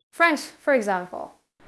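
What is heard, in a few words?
Voice Acting Spanish Female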